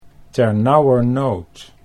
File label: "ternauwernood", Dutch